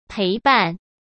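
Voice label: "陪伴", Mandarin Chinese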